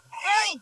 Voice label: Voice Acting, es